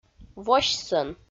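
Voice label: "восьсӧн", Komi, female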